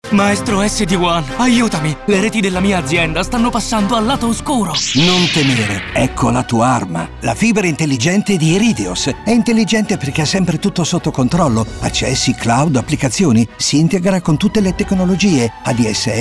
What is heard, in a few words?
Spot; Irideos